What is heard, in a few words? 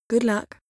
female